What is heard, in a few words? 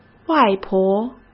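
"外婆", Mandarin Chinese; chinese flashcards; hsk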